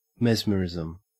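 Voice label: "mesmerism", Australian English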